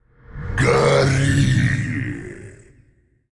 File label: Male Faceless Attack